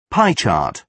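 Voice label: "pie chart", British English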